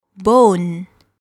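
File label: "bone", English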